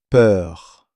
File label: "peur", French